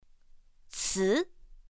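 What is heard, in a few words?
"cí", Mandarin Chinese pinyin; pinyin2; pinyinji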